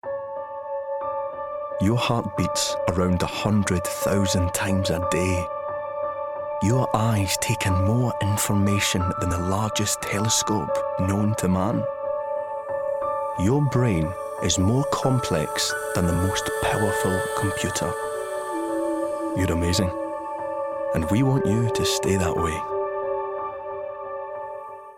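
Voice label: Narration, Mid-to-deep tone. Reassuring and rich Scots voice.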